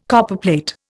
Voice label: "Copperplate", Indian

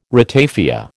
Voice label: "ratafia", American

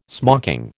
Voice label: "smocking", American

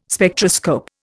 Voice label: "Spectroscope", Indian

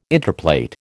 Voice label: "interplait", American

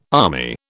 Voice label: "army", British

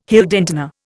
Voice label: "Heldentenor", Indian

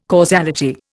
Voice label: "causality", Indian

